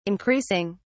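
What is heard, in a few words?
"increasing", English, British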